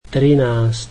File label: slovak thirteen